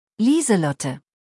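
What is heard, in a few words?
"Lieselotte", German; maedchennamen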